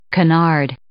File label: "canard", English